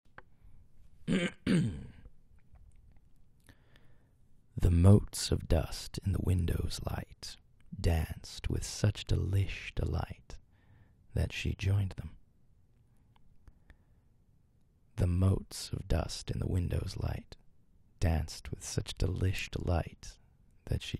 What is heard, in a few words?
Dusty Dance VO